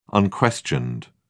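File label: "unquestioned", British English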